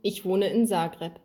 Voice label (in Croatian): njemački; POP UP Zagreb